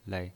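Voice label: "lei", Mandarin Chinese, neutral tone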